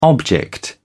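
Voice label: "object", British English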